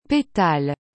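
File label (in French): "petale", French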